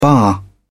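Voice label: "baa", British English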